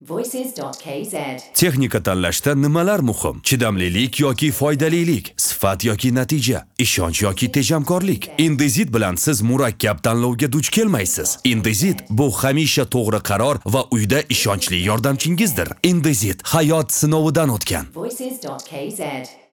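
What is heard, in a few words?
Dictor Uzbek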